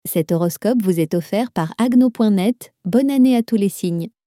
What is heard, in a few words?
Mademoiselle, French; horoscope annee 2025